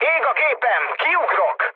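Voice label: Hungarian on fire mood low, speech; War Thunder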